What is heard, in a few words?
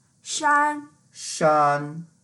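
"shan1", Mandarin Chinese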